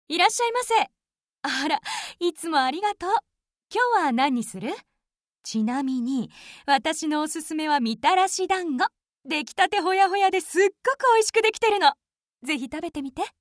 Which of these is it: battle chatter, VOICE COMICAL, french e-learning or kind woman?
kind woman